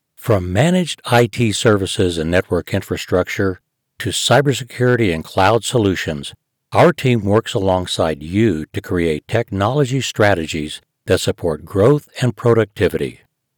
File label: Brand Video Voiceover